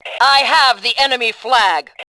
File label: flag, Fem; Tribes2